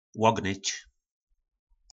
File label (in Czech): "Łognyč", nářečí Po našimu; Ohnout